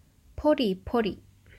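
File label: "pori pori", Japanese; ポリポリ – pori pori; Food sounds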